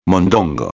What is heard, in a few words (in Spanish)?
"mondongo", espanhol; palavra do dia